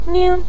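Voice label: "ning", Mandarin Chinese neutral tone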